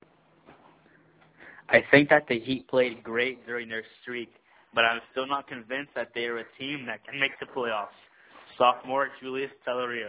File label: Audio message